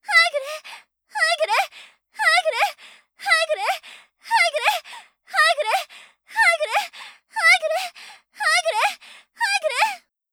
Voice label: ハイグレ, 姉

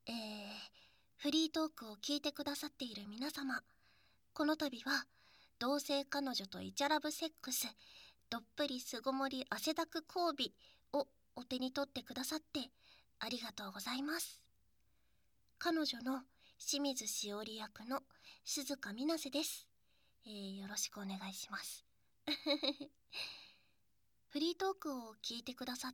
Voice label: フリートーク 射精音なし

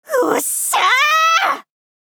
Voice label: おっしゃあぁあ！！ Ｓっぽい感じの女性×中音域

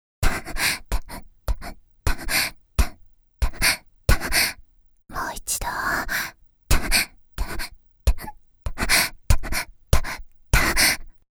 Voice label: タッピングB(ささやき)<解説なし>; 実技パーツ（エクササイズ）; 第１回講座より（ラブ&タップ）

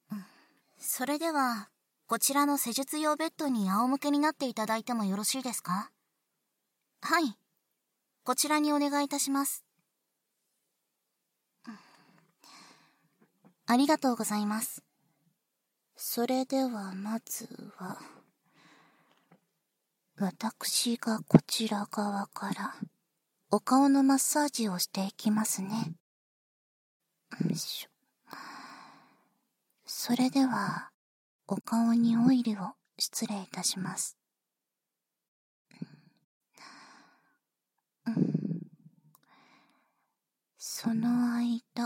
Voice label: フェイスマッサージ+焦らし愛撫（バイノーラルマイク 効果音なし）

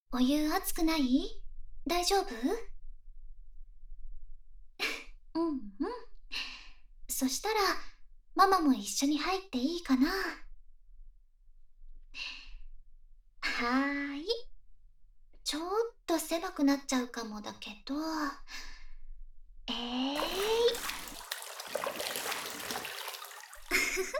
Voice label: ぽかぽかくっつきお風呂（反響あり甘々囁き+編集 効果音あり）, ASMR

